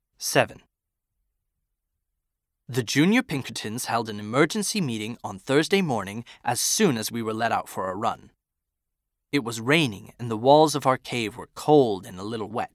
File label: male english usa neutral usa accent audiobook narration